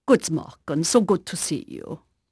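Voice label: Dutch female, voice; Pirates Of The Caribbean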